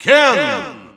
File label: Russian Announcer; Ken; SSBU